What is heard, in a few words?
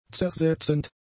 "zersetzend", German; adjectives